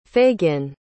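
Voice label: "fagin", English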